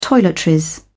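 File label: "toiletries", British English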